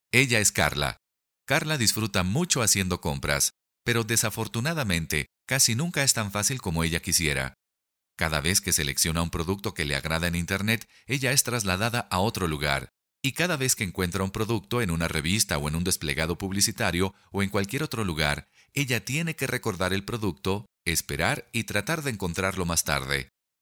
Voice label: explainers